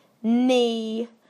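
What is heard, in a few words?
"knee", British English; PictureDictionary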